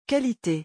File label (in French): "qualite", French